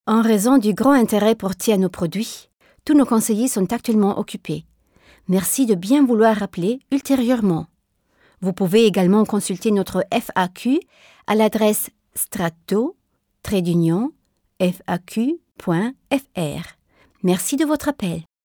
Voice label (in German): Kundenhotline französisch